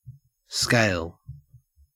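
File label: "scale", Australian English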